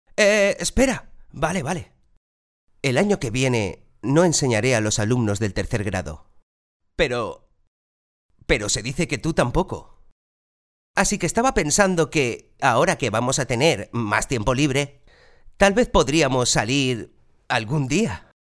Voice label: locutor spanish voiceover, cartoon dubbing voiceovers; Spanish cartoon dubbing voice over agency